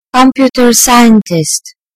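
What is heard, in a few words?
"computer scientist", British English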